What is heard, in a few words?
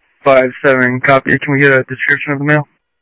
Mesa Simulcast, MESA PD, scanner audio